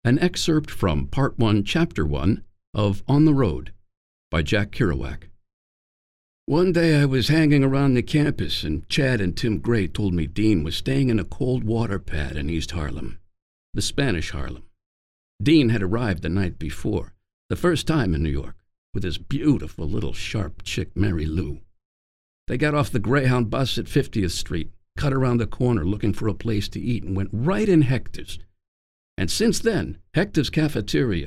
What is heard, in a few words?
book, AUDIO BOOK